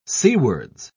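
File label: "seawards", British English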